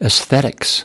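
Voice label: "aesthetics", US English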